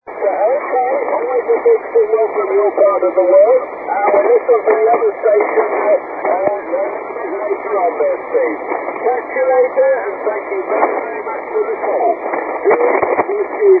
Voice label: 80m ssb

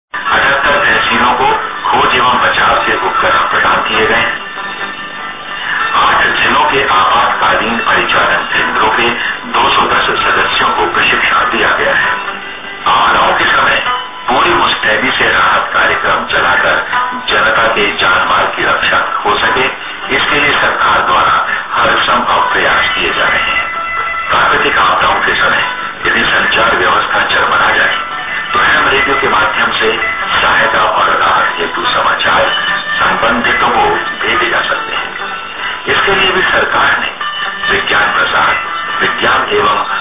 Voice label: ham news; Uttaranchal

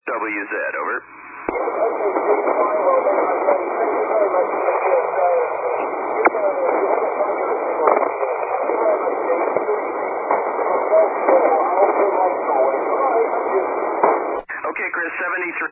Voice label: 80m ssb; FT-1000d; SSB-SP

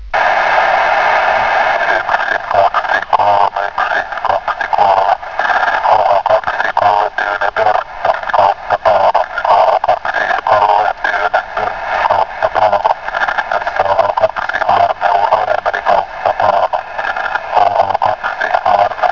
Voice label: laser ssb; malminkartano vuosaari

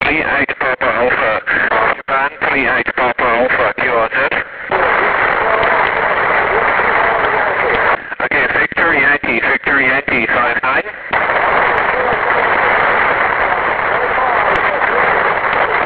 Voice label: 160m ssb

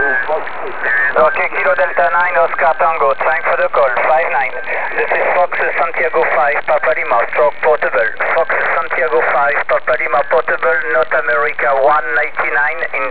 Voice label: ssb cq

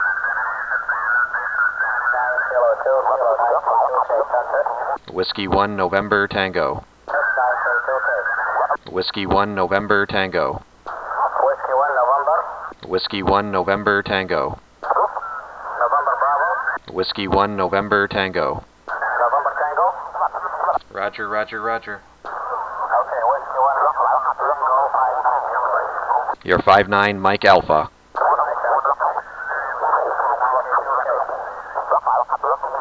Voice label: Kuwait 20m ssb; 9K2K; 2009 arrl ssb

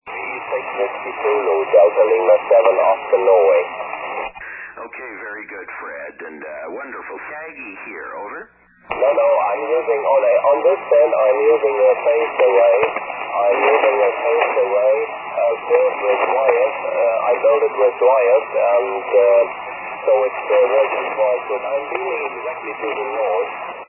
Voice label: 80m SSB; 80m DXCC Entities worked from 2002-2008

